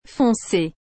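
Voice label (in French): "foncée", French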